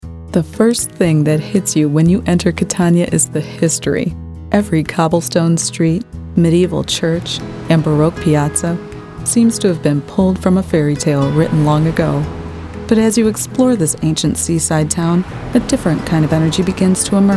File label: Narration